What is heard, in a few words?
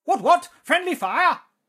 battle chatter